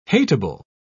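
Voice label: "hatable", British English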